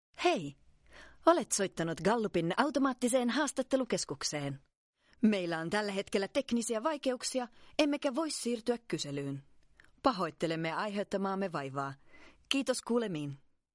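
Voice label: Finnish F Corporate, female; voice over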